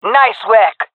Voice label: compliment, jungle fem; vo; agents; Source Model Packs; Counter Strike Model Pack; CS2; jungle fem epic

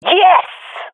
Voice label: compliment, swat fem; vo; agents; Source Model Packs; Counter Strike Model Pack; CS2

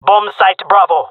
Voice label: jungle male; player vo; Counter Strike Model Pack; CS:GO

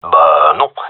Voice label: negative, gendermerie male

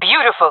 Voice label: cheer, jungle fem; Counter Strike Model Pack; CS:GO

